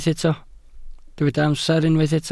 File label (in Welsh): "amser", Welsh